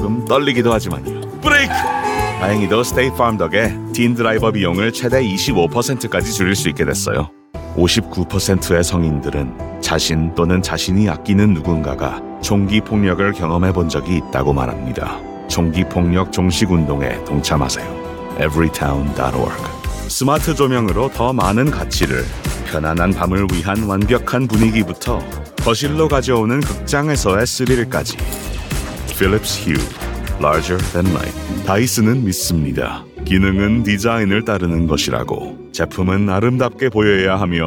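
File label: Korean, Commercial; GoLocalise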